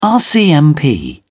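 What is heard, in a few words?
"rcmp", British English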